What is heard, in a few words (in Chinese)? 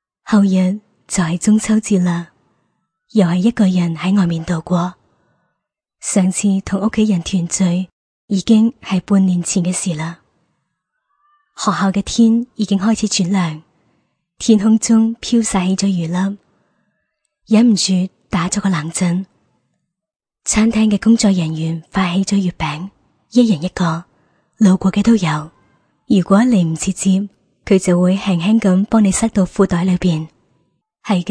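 粤语女独白 中秋节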